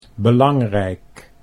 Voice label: "belangrijk", Dutch